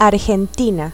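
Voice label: "Argentina", Spanish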